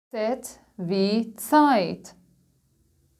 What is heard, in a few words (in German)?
german alphabet